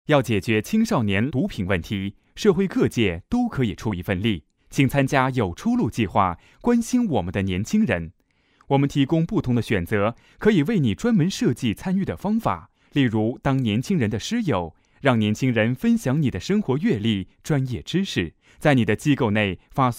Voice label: no drugs path mandarin; 向毒品说不2009(友出路)